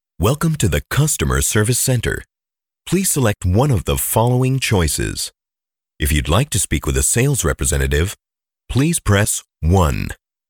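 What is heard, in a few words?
english american interactive voice response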